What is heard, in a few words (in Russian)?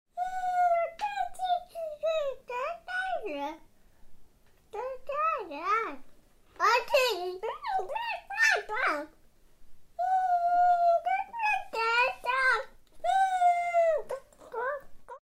Ребенок учится говорить